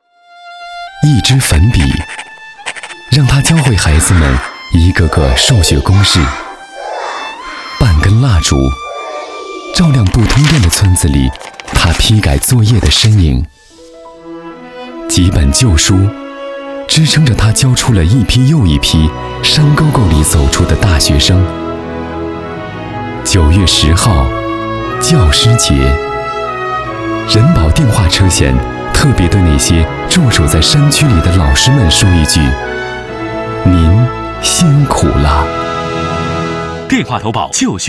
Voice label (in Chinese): 男 国 大音 广告 温情